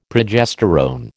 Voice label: "progesterone", American

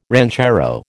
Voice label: "ranchero", American

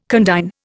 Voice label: "condign", Indian

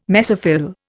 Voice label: "mesophyll", Indian

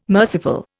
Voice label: "merciful", Indian

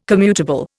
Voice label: "commutable", Indian

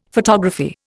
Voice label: "photography", Indian; Dictionary

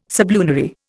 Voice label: "sublunary", Indian

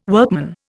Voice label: "workman", Indian

